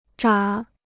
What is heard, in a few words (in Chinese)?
"眨", Chinese